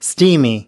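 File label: "steamy", American English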